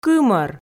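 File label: "кымӧр", Komi, female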